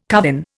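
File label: "covin", Indian English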